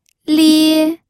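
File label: "lie", first tone, pinyin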